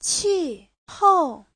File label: "qi4hou4", chinese; Giáo trình Hán ngữ